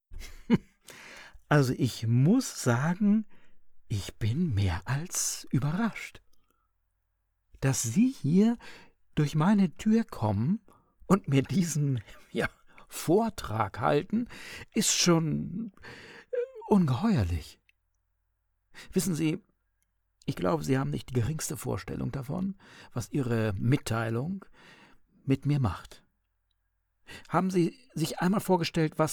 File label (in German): Hörspiel